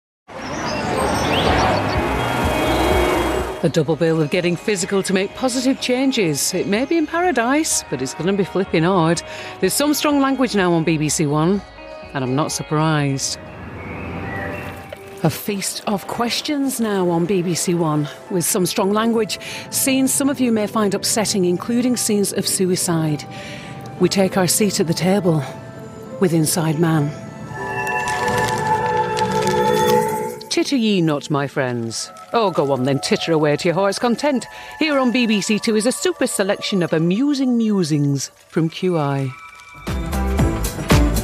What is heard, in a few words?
continuity radio